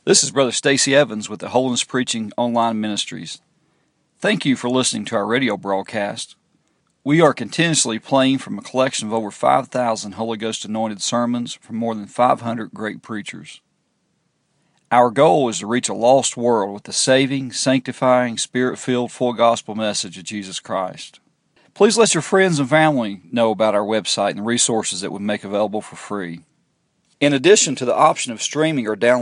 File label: commercial